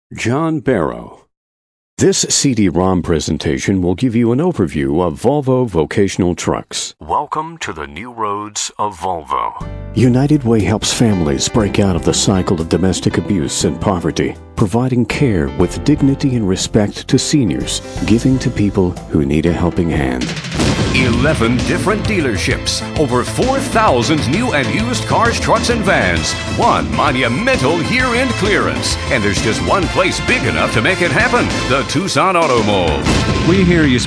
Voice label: Commercial, Male voices